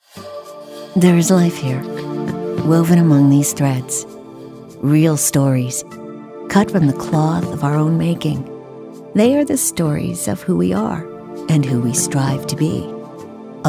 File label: soft spoken